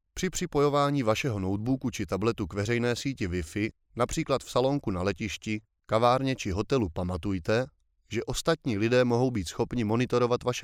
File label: Czech